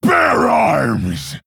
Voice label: Male Orc Attack